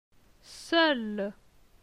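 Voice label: "Seul", French; phonetique; les consonnes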